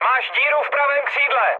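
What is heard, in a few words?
right wing, Czech, mood med; speech; War Thunder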